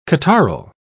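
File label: "catarrhal", British English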